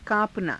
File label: "kaa~panaa", Hindi; [自動]震える; काँपना